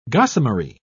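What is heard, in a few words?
"gossamery", English, British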